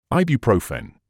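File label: "ibuprofen", British English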